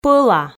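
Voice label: "пӧла", Komi, female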